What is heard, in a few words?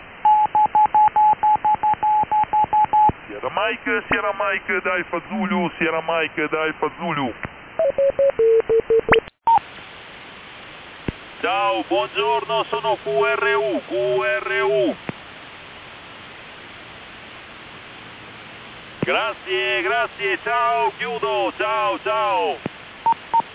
italiano f usb; 193545 khz